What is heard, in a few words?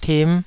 "tim5", Taiwanese